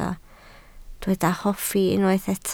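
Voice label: "hoffi" Welsh, spoken word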